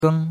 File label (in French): "geng1", chinois; Cours de Chinois